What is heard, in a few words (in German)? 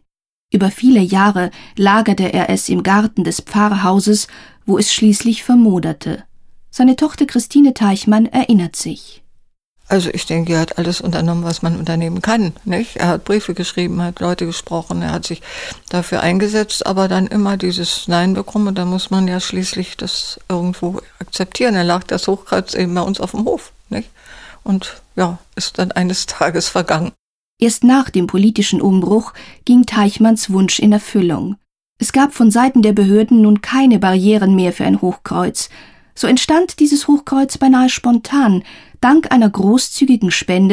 Audioguide Waldfriedhof Halbe